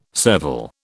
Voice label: "serval", British